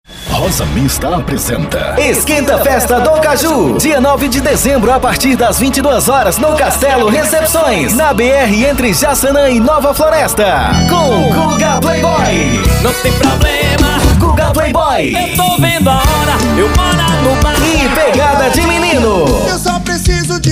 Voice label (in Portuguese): banco de vozes banco de voz locutor vinheta